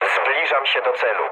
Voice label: near waypoint mood low, speech pol; War Thunder